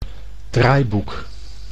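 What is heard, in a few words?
"draaiboek", Dutch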